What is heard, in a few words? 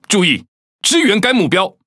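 Chinese (Taiwan) Speech Quick Commands Ally Ship; World Of Warships; ZH TW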